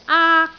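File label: "aak1", Cantonese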